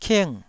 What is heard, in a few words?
"king4", taiwanese syllable